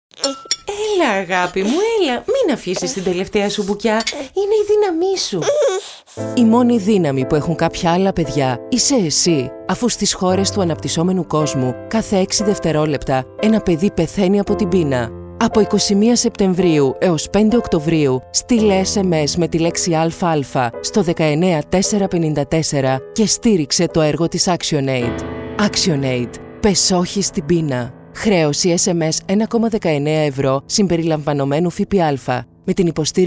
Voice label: radiospot